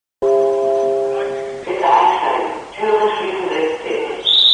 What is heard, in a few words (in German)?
Durchsage, modellbahn